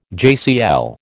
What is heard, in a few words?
"JCL", American